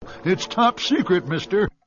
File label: its top secret mister, male; VOCALS and SPOKEN WORD